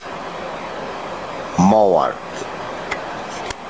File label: "Muihir", Tobi; Stern; Fishing Lore of Tobi